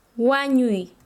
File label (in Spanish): "morir", Quechua Chanka